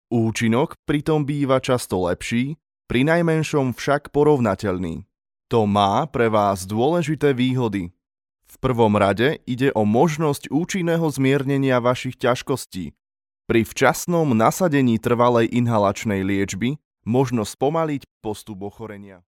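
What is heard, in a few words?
Slovak, male, e-learning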